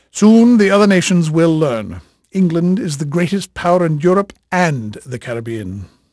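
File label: English male, voice; Pirates Of The Caribbean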